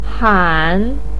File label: "han3", Chinese pinyin voice